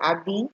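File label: "abi", Nigerian Pidgin, female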